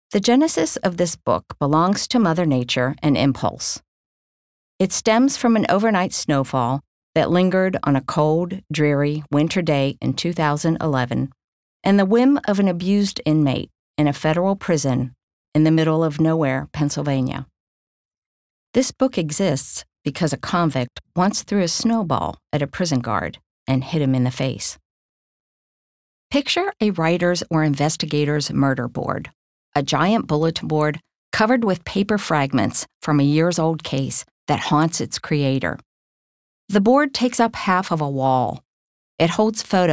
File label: Audiobook Sample; Chained Birds